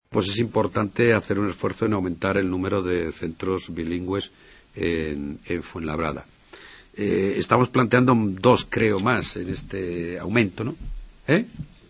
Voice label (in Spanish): noticias